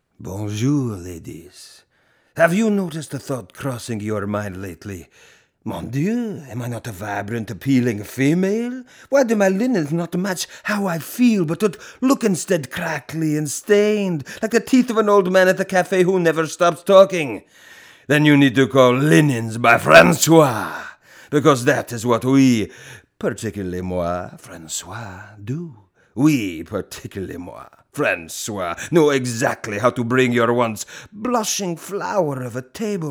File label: commercial